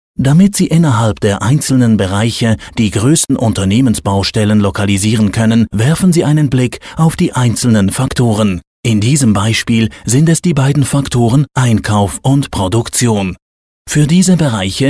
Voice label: de elearning